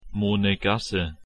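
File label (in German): "Monegasse", German; pronunciation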